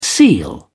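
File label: "seal", English, British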